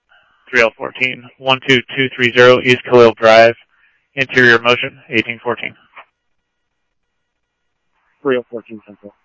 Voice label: Police, scanner audio